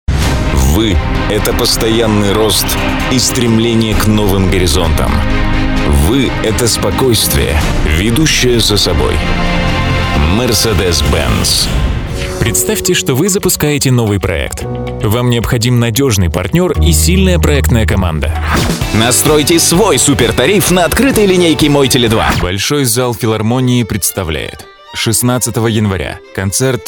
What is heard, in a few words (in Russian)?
Мужской